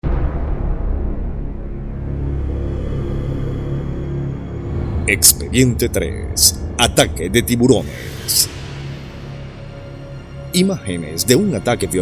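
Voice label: documentary sharks; DOCUM TIBURONES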